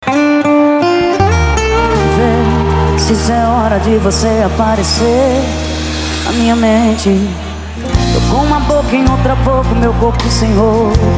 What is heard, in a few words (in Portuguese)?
locutor a voz magnifica